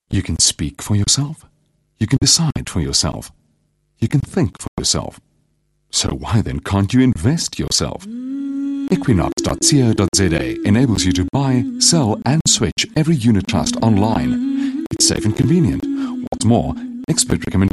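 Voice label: Afrikaans Accented English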